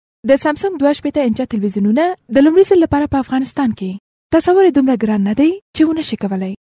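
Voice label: pashto female voice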